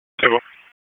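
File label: Police Northeast District Disp, radio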